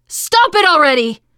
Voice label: friendly fire, teengirl; player; survivor; voice

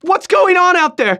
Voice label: radio initial broadcast; Left 4 Dead 2; left4dead2 dlc3; churchguy; npc

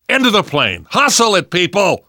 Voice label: arrive plane, survivor voice; Left 4 Dead 2; left4dead2 dlc3

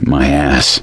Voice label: reaction negative, voice; gambler

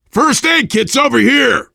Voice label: spot first aid, voice, biker

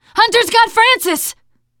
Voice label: hunter pounced, teen girl

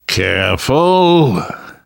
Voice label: warn careful, namvet, survivor voice; Left 4 Dead 2; left4dead2 dlc3

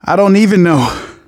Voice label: getting revived, manager, survivor, voice

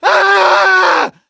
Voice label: incapacitated injury, voice; gambler

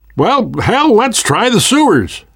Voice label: world hospital, namvet, survivor voice

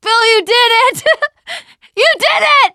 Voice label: teengirl, voice; c6 dlc3 bill dies

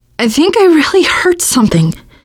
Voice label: going to die, teengirl, voice; player; survivor

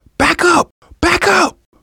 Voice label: backup quiet, manager, survivor, voice; Left 4 Dead 2; left4dead2 dlc2

